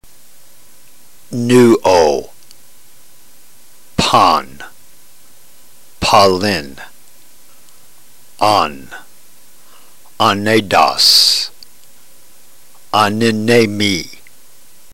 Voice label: drill, ntgreek